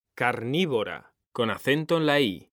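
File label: "carnívora", Spanish, male; mekanta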